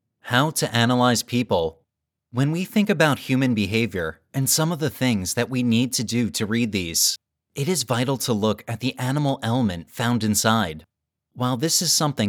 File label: Self Help Audiobook